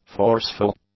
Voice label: "forceful", english; adjectives